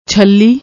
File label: "chhali", Punjabi